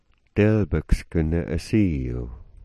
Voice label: "delbaks", smalgyax